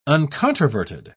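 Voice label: "uncontroverted", British English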